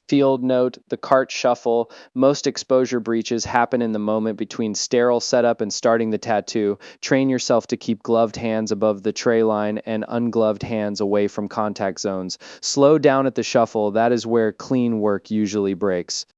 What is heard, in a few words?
cart shuffle calm mentor; bloodborne pathogens; compliance